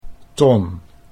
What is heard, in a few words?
"Ton" Dutch